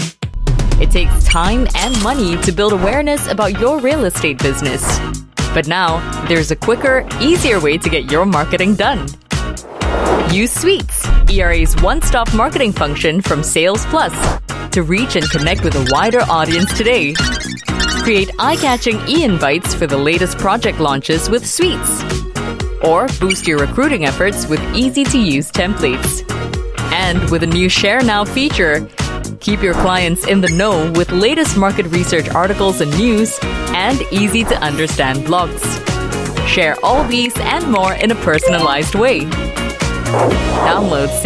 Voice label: Asian English